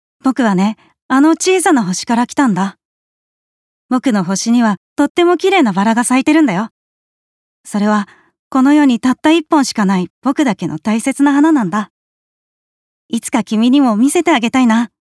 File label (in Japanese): 少年